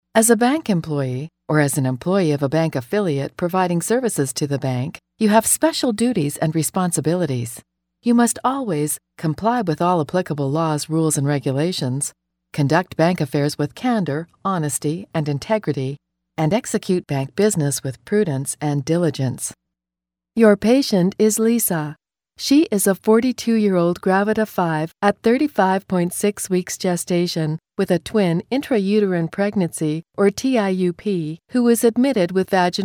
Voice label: e Learning